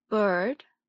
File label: "bird", English